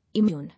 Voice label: "Immune", English

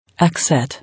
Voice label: "Exit", English

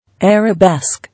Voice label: "Arabesque", English

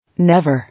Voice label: "Never", English

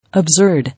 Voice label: "Absurd", English

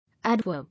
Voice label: "Adverb", English

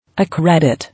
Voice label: "Accredit", English

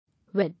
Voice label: "Wed", English

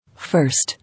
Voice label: "First", English

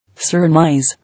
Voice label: "Surmise", English

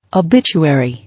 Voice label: "Obituary", English

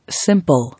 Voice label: "Simple", English

